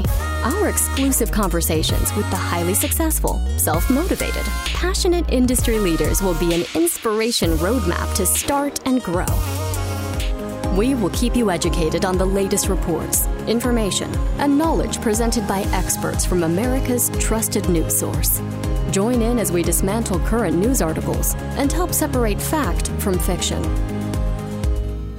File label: Podcast Intro